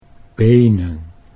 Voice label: "benen", Dutch

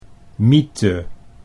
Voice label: "mythe", Dutch

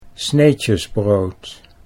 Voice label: "sneetjesbrood", Dutch

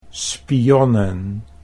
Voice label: "spionnen", Dutch

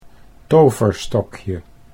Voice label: "toverstokje", Dutch

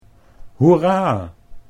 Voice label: "hoera", Dutch

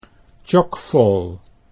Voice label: "tjokvol", Dutch

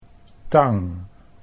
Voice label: "tang", Dutch

